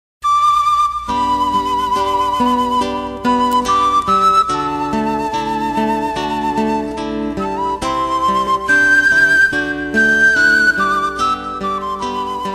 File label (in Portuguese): ANIV NORA FEM